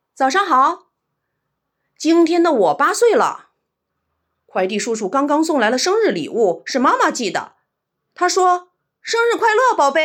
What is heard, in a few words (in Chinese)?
男孩